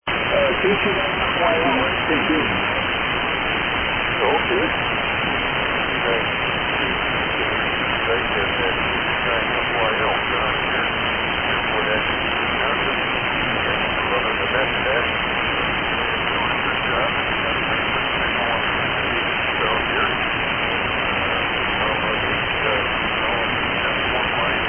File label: Collins S-Line 10-D Mic & 30L1 Amp; Fort Atkinson, Wi.